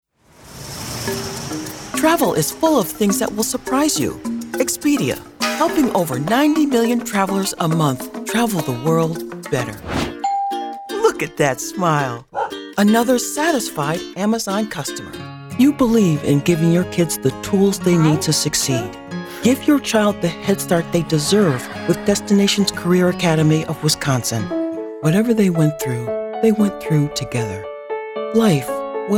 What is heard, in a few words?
Commercial